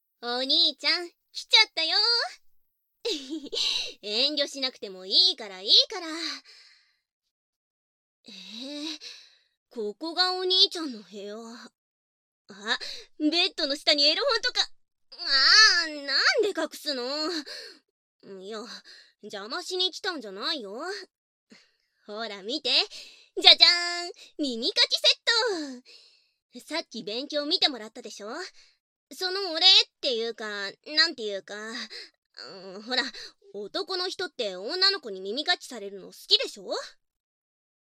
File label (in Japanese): お風呂上りの従妹に耳かきしてもらおう！, 効果音あり 大きめ; 効果音１あり大きめ